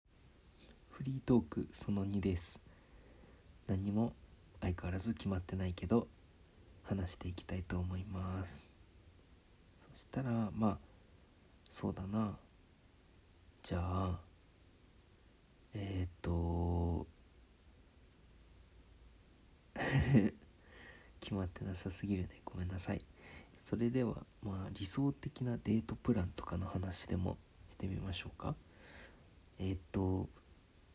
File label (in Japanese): だらだら フリートーク